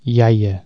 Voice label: "grandma", Catalan

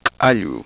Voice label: uvular ejective, quechua

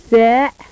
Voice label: voiceless epiglottal stop final, agul

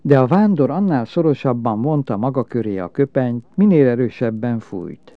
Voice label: narrative, hungarian

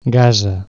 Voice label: lint, Catalan Consonants

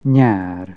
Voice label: summer, hungarian

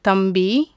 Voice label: younger brother, tamil; JIPA

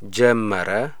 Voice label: he began, amharic

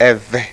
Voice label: labiodental voiced, ewe

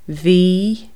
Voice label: "was", Irish

